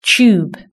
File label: "tube", British English